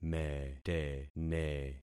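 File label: "metene", concatenated syllables; Affixing experiment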